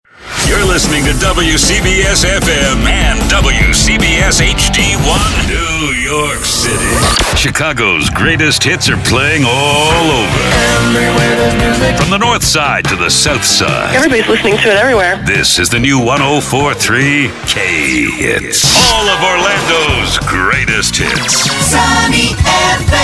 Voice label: radio